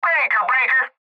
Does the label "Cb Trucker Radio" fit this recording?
Yes